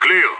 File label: clear, radio; SAS